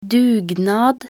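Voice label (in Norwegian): "dugnad", Norwegian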